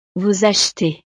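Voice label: "vous achetez", French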